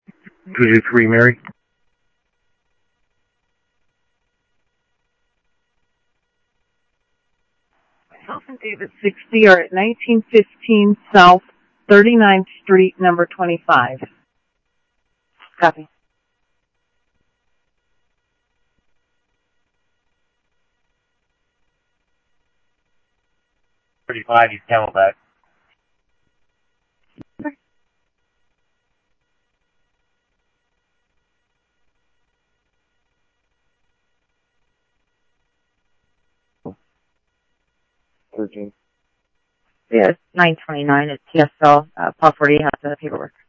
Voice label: Sheriff Central, scanner audio trunk; ThompsonPeakScot; MCSO Central

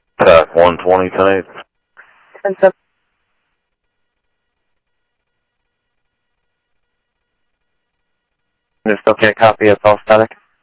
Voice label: scanner audio; Thompson Peak; DPS CI-Ctrl

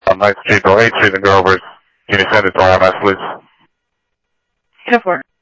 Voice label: Phoenix PD, scanner; ThompsonPeakHigh

